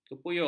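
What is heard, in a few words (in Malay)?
"kepuyuk", Malay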